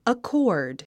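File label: "accord", English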